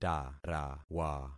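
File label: "tarawa", concatenated syllables; Affixing experiment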